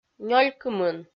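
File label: "нёль кымын" Komi-Zyrian, female; kpv audio dict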